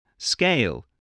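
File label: "scale", English word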